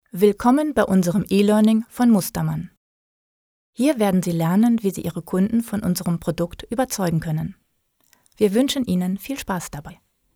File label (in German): achtzehn elearning, sprecher